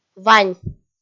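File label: "вань", Komi, female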